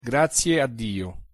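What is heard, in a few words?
pronunciation "grazie a dio", Italian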